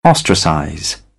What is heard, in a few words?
"ostracize", British English